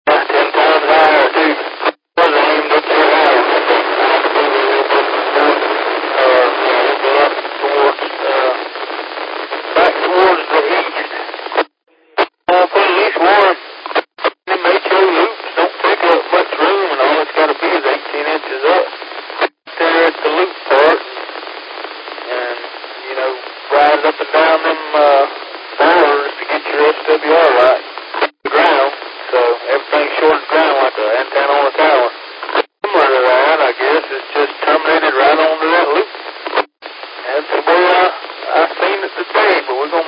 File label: qso rec repeater logic